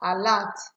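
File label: "alert", Nigerian Pidgin, female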